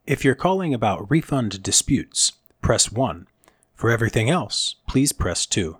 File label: Press 2, IVR menu option with clear, professional call-to-action; IVR Thumbtack